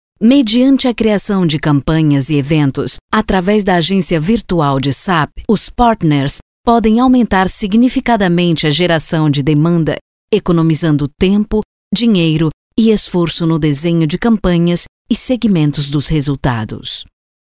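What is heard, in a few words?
locutora brasil brazilian voiceover, female; locutora de Brasil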